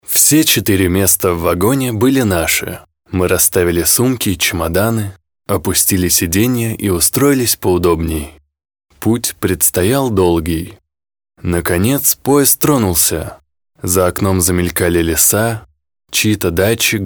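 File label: Russian male Casual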